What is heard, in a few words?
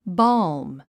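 "balm", English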